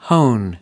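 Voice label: "hone", American English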